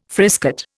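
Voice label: "frisket", Indian English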